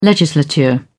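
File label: "legislature", British English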